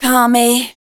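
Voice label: vocal female, Dance Vocals, spoken words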